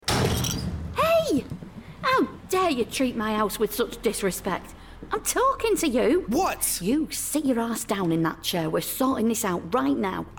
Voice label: english british podcasts